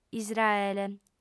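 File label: "israele", female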